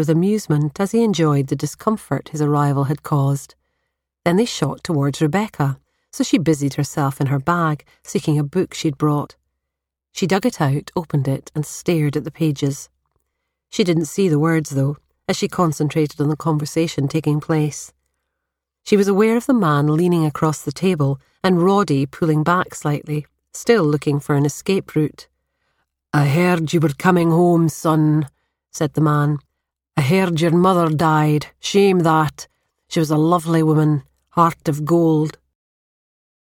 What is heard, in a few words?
Scottish Male Highland character